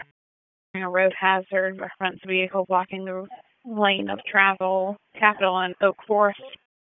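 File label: Raleigh Police Northeast District Dispatch, p25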